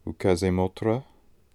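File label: "Ookazemotra", pronunciations; Fourth Way; Beelzebub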